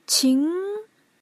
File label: "qíng", Pinyin; PinyinChart